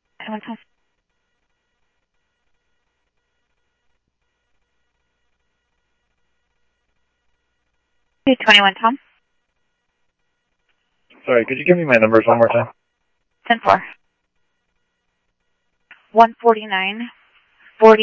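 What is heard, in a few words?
police department, scanner audio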